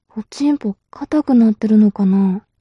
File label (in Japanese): mob 女子 台詞